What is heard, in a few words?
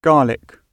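"garlic", British English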